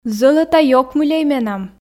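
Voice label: "зӧлӧта ёкмыльӧй менам", Komi, female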